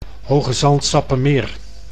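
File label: "Hoogezand-Sappemeer", Dutch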